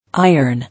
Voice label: "Iron", English